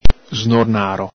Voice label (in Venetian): "snornaro", Veneto; Aesculus hippocastanum; chestnut tree (horse c.t.); ippocastano; el Galepin